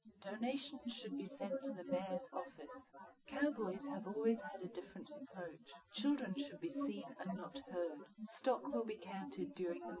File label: female liverec 250ms 5cm; SiSEC08; SiSEC underdetermined